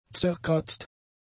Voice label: "zerkratzt", German; adjectives